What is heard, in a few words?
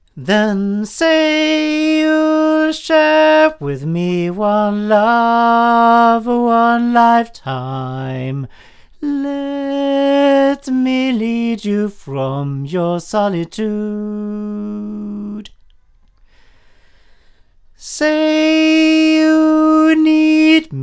male; Lauten Clarion; microphones